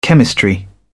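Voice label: "chemistry", British English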